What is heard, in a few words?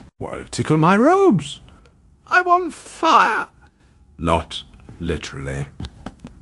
happy, speech; fertig classic games; zanthor